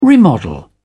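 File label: "remodel", British English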